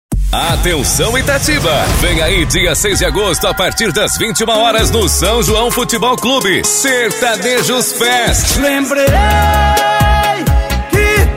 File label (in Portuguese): LOCUTOR